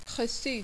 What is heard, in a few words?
"recu", French